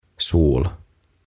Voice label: "Sol" "Aurinko"